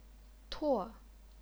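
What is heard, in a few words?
"tuo", chinese pinyin, fourth tone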